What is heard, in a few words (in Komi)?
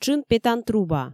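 тшын петан труба, Komi, female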